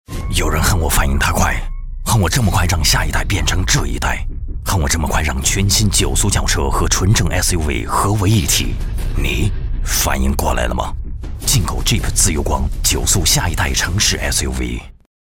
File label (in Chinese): 广告 汽车; 进口Jeep自由光